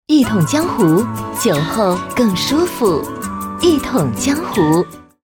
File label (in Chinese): 女 广告; 一统江斛